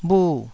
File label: "bhu4", taiwanese Syllables